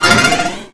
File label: Line Announce; Twingo; ClubFusion